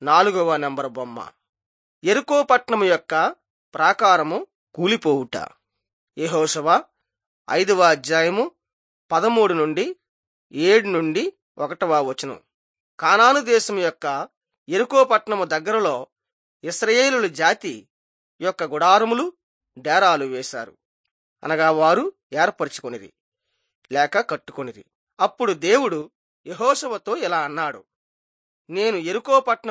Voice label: telugu bangladesh